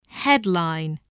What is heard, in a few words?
"headline", English, spelling